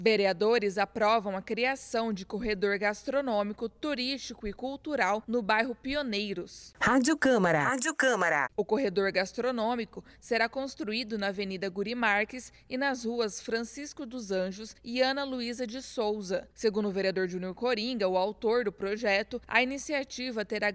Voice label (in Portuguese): spot radio; corredor gastronomico